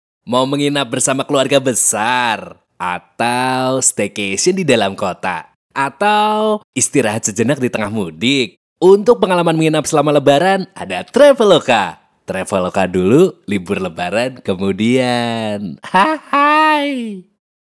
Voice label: KASUAL BAHASA INDONESIA; CASUAL

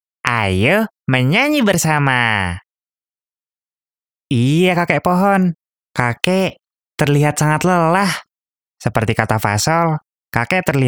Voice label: INDO KARAKTER

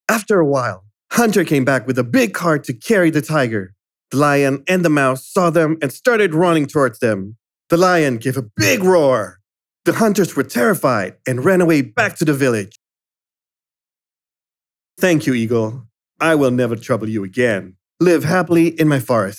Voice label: CHARACTER INGGRIS

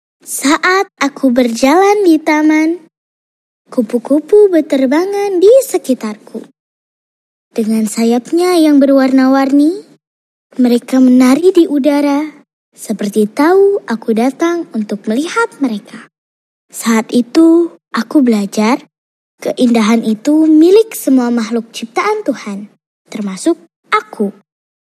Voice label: anak, story telling; INDONESIA

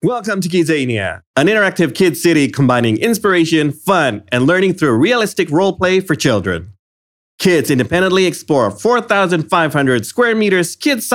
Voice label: CASUAL INGGRIS

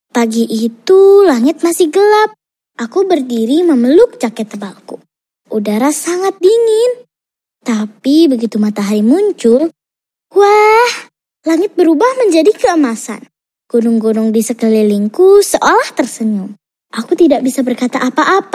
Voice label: anak, story telling; INDONESIA

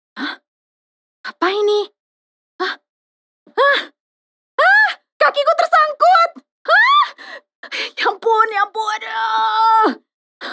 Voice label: Character BAHASA

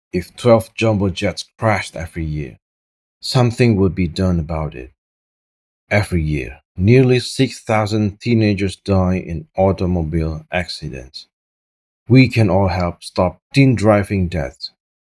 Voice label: FORMAL INGGRIS

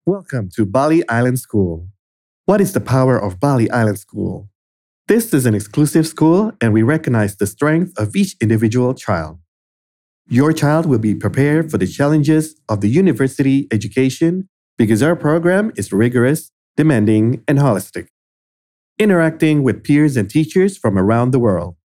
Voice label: CASUAL INGGRIS

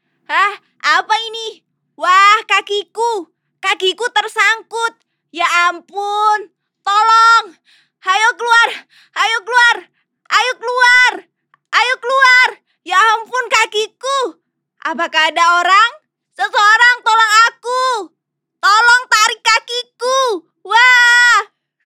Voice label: KARAKTER BAHASA

